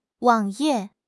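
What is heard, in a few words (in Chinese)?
"wang3 ye4", Chinese; 汉语口语新时代-初级下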